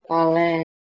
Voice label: "Heh3le" PIE